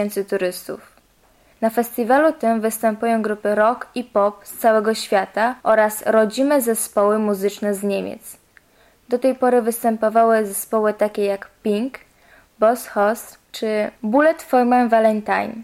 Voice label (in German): Tauber polnisch